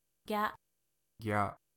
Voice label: "gya", Japanese